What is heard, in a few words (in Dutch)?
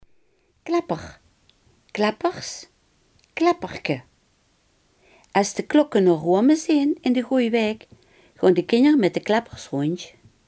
"klepper", Limburgs